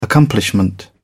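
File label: "accomplishment", British English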